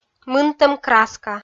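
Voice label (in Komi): "мынтӧм краска", Komi, female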